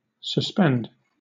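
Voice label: "suspend", British English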